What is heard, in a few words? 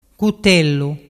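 "cutellu", Vernazzese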